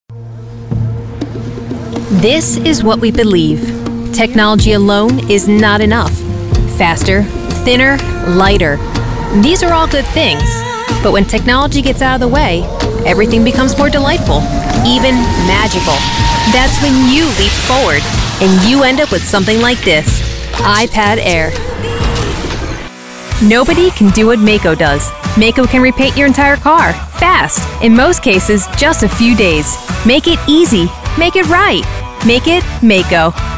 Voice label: COMMERCIAL